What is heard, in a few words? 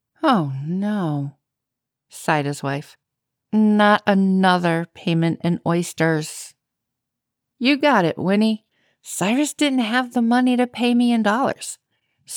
Fiction Historical Fiction Audiobook; Boston area; home studio